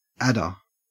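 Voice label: "adder", Australian English; pronunciations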